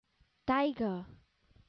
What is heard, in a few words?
"tiger", English